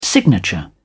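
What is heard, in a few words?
"signature", English, British English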